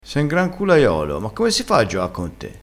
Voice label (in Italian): "culaiolo", crespinese